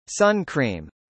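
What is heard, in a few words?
"sun cream" spoken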